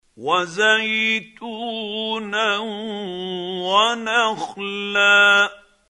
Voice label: quran, Hussary, tafseer; Abasa